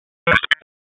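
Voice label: Raleigh Police Northeast District Dispatch; wake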